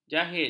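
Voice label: "jahit", Malay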